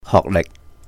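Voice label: "hohk lihk", Cantonese; educational record; 學歷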